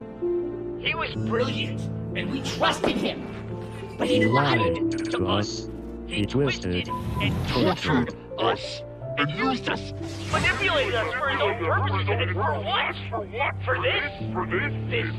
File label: ai rant